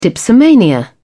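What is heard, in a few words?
"dipsomania", British English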